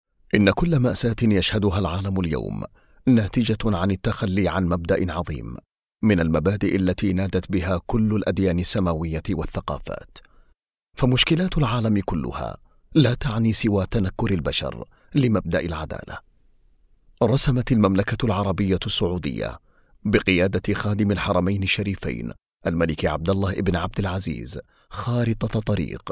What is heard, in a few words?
Arabic